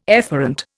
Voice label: "efferent", Indian

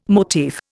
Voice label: "motif", Indian

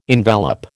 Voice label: "envelop", American

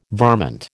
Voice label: "varmint", American

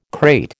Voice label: "krait", American

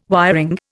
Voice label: "wiring", Indian

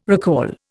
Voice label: "recall", Indian

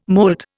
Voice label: "moult", Indian

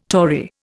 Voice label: "Tory", Indian

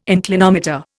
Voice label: "inclinometer", Indian

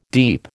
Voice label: "deep", American

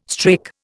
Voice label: "strake", Indian

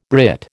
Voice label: "Brit", American

